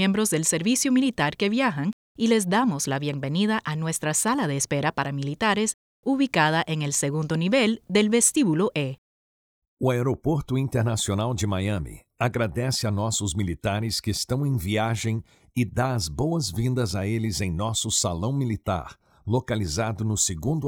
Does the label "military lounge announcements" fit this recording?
Yes